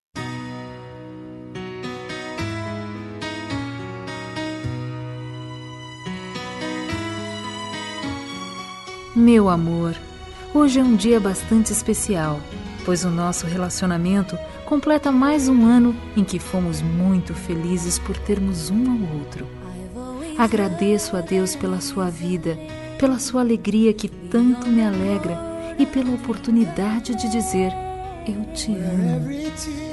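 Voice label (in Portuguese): Evangélica Aniversário de Namoro, female, telemensagens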